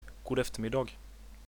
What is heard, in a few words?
good afternoon, swedish